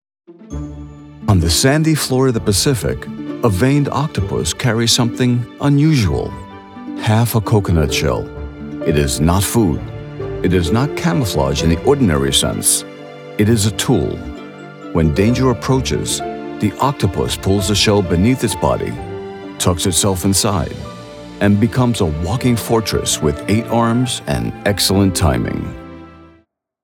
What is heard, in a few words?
Nature Octopus, tv narration